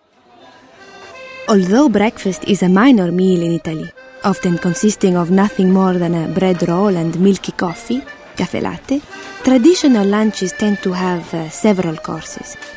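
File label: Italian, English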